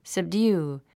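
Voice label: "subdue", English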